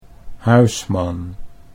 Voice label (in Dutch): "huisman", Dutch